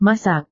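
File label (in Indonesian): "masak", Indonesian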